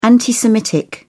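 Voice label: "anti-semitic", English, British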